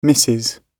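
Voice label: "Mrs", British English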